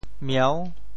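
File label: "mieu6", Teochew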